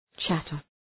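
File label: "chatter", English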